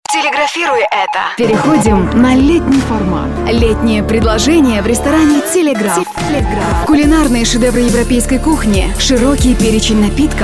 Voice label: Kirgizca Kadin

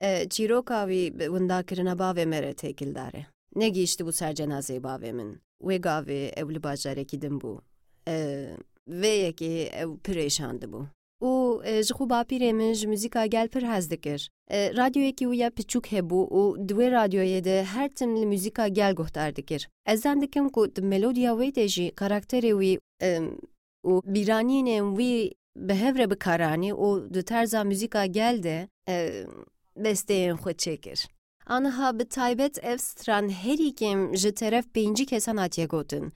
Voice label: Kurtce Kadin Kurmanci; kurtce seslendirme; kadin sesler

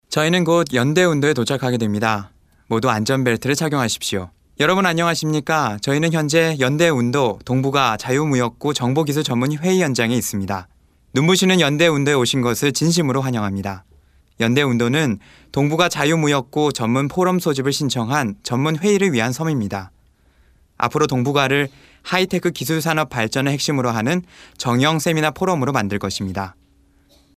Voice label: Korece Erkek; seslendirme; erkek sesler

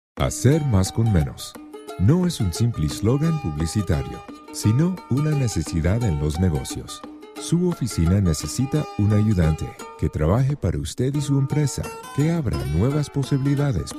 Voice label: Ispanyolca Erkek

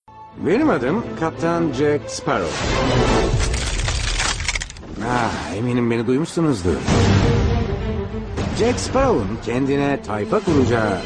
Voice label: turkce seslendirme, erkek sesler

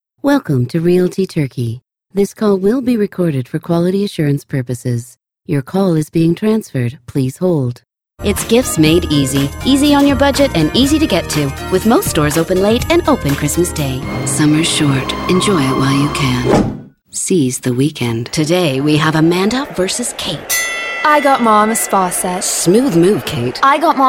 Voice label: Amerikan Ingilizcesi Kadin

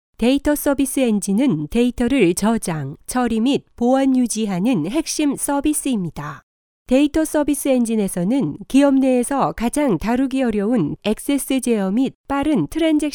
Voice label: Korece Kadin, seslendirme; kadin sesler

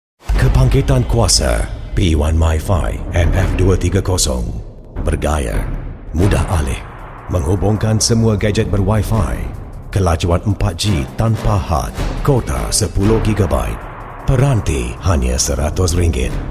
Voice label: Malayca Erkek Malezya, seslendirme; erkek sesler

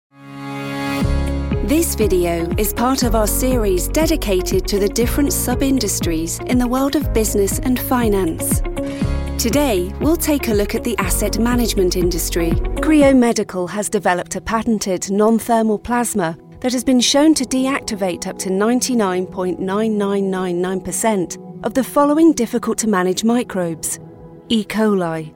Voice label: ingiliz ingilizcesi Kadin

